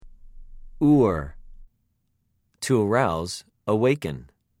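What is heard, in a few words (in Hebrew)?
"עוּר", Hebrew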